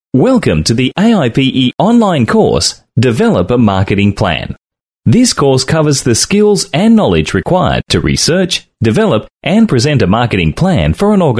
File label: male talent corporate